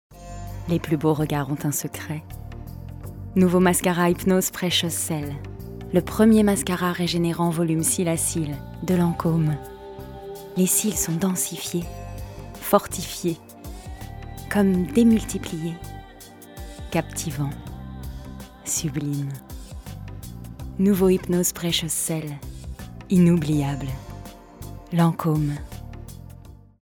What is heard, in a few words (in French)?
pub, voix; lancome